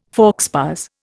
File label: "faux pas", Indian